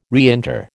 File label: "reinter", American